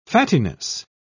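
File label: "fattiness", British English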